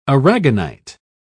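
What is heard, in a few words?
"aragonite", British English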